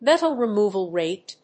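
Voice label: "metal removal rate", English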